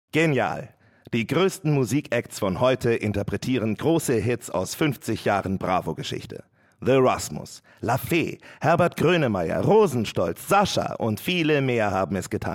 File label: TV-Spot